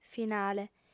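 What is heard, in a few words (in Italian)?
"finale", lingua sarda, female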